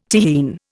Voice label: "Tyrrhene", Indian